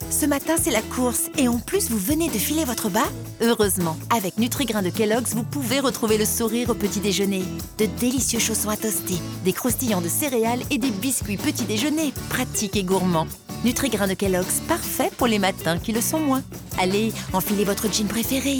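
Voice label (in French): tonique enjouee, comédienne voix off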